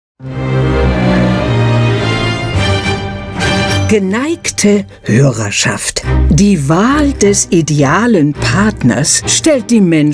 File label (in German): Eigenwerbung, Radiowerbung; AudioService; LippeWelle; sportproduktion